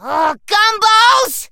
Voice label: hurt